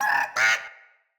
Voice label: Sprout Vocal Surprised; Friendly; Sproutfolk; Fey Hub Sprout

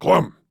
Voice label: Banter; Warframe; Clem; Dialog Barks Battle

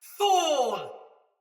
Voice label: Attack Heavy, Barks, Battle, Female; Sinecure Soldier Mace Agent; Sinecure Melee

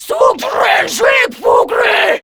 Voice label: Cover Me, Grineer Female; Warframe; Battle Barks

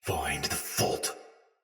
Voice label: Attack Heavy Soldier Sword Agent Male; Battle; Sinecure Melee

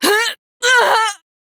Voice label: Death, Female; Warframe; Railjack; New Loka

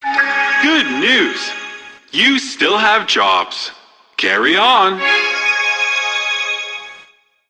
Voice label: PA System, en; Warframe; Solaris Venus

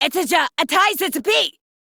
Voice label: Reload Female; en; Modular Corpus; Battle Barks

